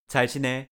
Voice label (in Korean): "잘 지내", Korean; goodbye in korean